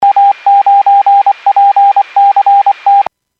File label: Skywarn 146.67; Stevens Point